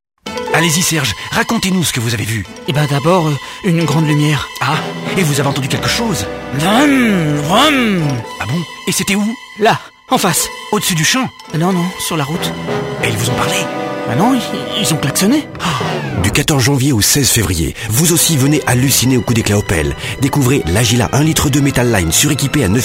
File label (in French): RADIO Journaliste et voix off; OPEL